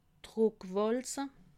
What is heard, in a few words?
"druckwalze", alsace